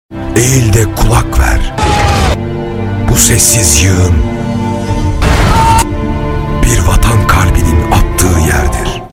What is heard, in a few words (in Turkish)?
reklam